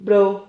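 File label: "bro", Spanish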